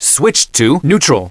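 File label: "Switched to neutral!" High Male Voice; Knights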